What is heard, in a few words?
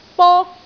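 "bok1", Cantonese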